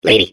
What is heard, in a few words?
babble; portal2